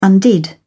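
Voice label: "undid", British English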